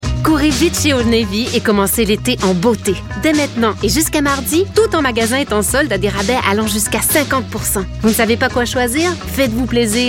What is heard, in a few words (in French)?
publicité